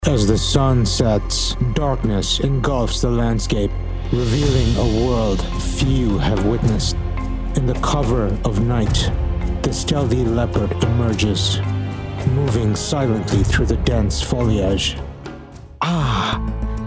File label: male English USA and Indian USA accent compilation